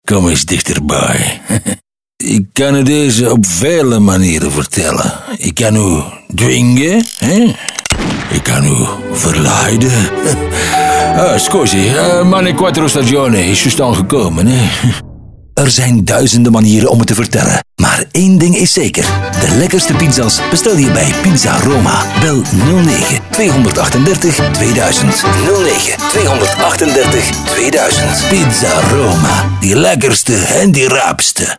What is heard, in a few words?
commercial; pizza roma